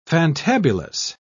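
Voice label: "fantabulous", British English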